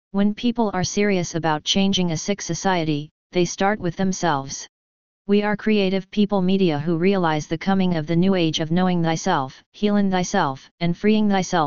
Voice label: text 2 voice